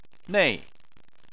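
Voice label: "nei", Chinese, fourth tone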